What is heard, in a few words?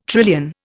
"trillion", Indian